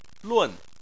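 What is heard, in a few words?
"lun", Chinese, fourth tone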